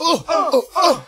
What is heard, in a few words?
"uh uh uh" male, Voice; ooh ahh; uh um